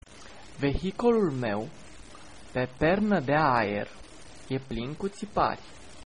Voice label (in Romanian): hovercraft, ro; Vehicolul meu pe pernă de aer e plin cu ţipari; Romanian